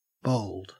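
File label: "bowled", Australian English; pronunciations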